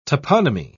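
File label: "toponomy", British English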